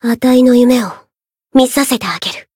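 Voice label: encounter, Japanese; harle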